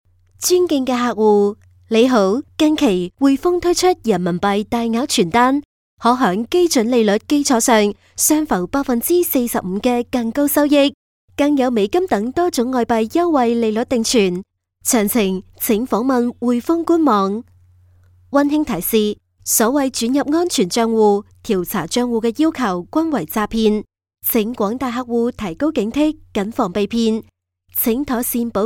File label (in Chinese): 粤女 粤语语音; 汇丰